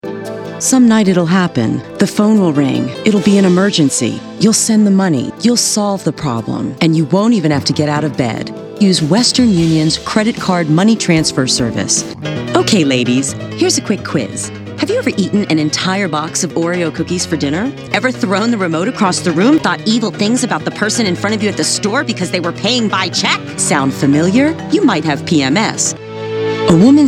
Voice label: voice over